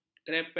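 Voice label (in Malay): "kerepek", Malay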